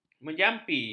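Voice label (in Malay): "menjampi", Malay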